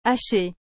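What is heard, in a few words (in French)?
"hache", French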